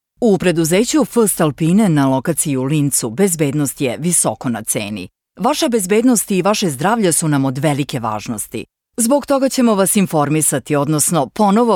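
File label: Serbian, female, narration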